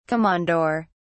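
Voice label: "komondor", English, British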